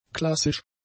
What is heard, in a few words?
"klassisch", German; adjectives